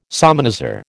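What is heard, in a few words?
"salmanazar", American English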